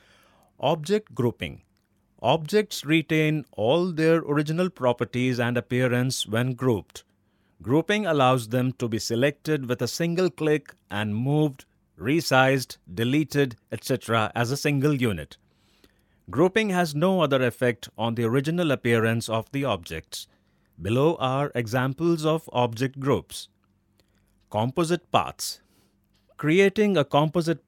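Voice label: e-learning english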